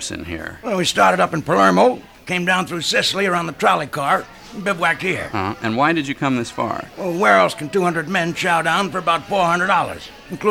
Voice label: Three Ads for The Old Spaghetti Factory